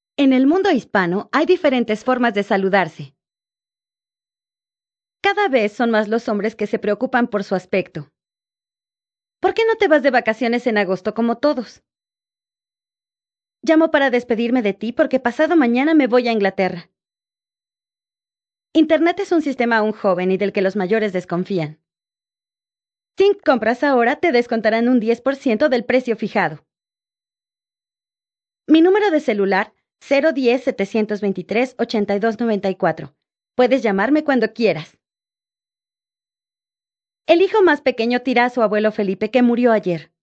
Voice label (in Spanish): Spanish South America, F